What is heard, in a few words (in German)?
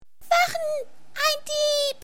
kindlich Dieb